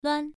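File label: "luan", tone 1, pronunciation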